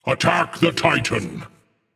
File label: vox attack m; smite; ganesha